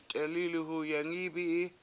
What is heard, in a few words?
a lot of men ran over, Washo; t$EEliwhu yengIIbi7i